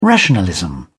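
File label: "rationalism", British English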